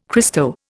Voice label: "Christo", Indian

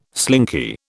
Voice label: "slinky", British

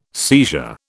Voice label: "seizure", British

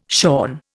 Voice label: "shorn", Indian

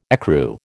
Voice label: "ecru", American

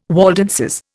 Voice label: "Waldenses", Indian

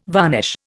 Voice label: "varnish", Indian

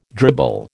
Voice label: "dribble", American

